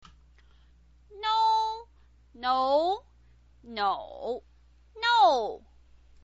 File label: "nou", chinese pinyin